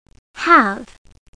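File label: "have", English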